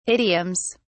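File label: "idioms", English, British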